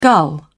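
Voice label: "gull", British English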